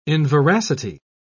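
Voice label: "inveracity", British English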